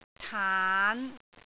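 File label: "taan5", Cantonese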